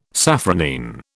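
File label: "safranine", British English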